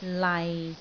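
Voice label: "lai6", Cantonese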